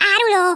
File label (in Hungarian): "traitor", Hungarian; Worms Armageddon